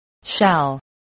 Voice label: "shall", spelling